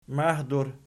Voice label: "mahdur", Archi